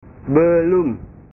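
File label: "belum", Indonesian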